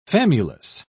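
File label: "famulus", British English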